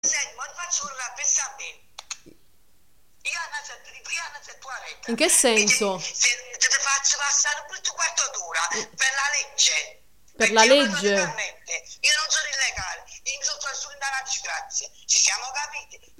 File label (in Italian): Telefonata